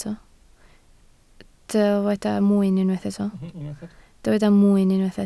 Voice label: "mwyn", Welsh